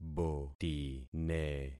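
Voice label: "botine", concatenated syllables; Affixing experiment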